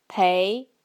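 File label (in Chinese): "pei", Mandarin Chinese; 陪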